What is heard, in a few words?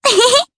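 Vox Happy Japanese; May; kingsraid; voices heroes jp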